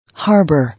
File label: "Harbour", English